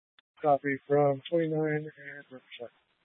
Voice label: Police 1 Dispatch, Scanner audio recording

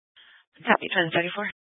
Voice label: Police Department Dispatch, scanner

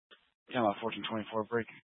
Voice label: Fire Department Dispatch, scanner

